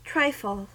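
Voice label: "trifle", US English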